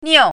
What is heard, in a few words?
"niu4", Chinese pinyin